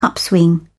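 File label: "upswing", British English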